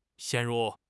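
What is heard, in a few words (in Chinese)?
"陷入", Chinese; HSK6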